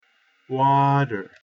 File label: "water", English pronunciation; 2 syllables